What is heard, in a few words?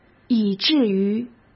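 "以至于", Mandarin Chinese; hsk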